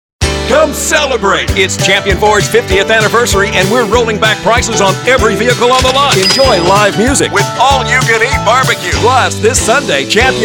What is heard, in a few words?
Automotive English